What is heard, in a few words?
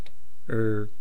"r", East Cree syllabics